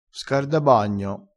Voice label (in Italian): "scardabagno", dialettale